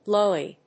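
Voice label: "blowy", English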